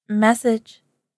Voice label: message, girl voice tone; message tones